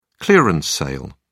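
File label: "clearance sale", English, uk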